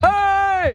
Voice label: "hey", Voice

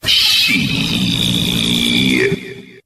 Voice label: "shit" LONG

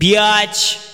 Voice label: "bitch", expletives

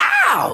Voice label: ow male

